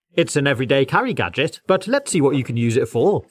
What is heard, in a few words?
British English UK Test